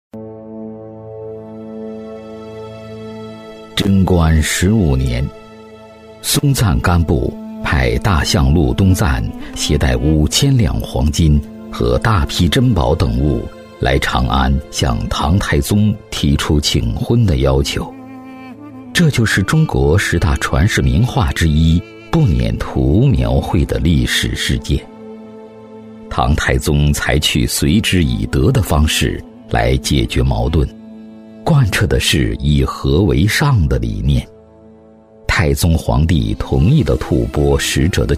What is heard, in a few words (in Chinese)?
男 历史纪录片 醇厚历史感, 高端内涵 大气浑厚; 文成公主入藏